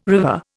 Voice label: "river", Indian

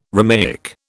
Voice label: "Romaic", British

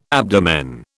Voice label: "abdomen", British

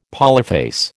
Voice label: "polyphase", American

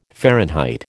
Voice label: "Fahrenheit", American

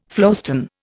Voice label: "flowstone", Indian

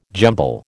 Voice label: "jumble", American

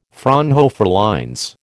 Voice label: "Fraunhofer lines", American

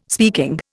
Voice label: "speaking", Indian

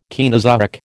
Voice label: "Caenozoic", American

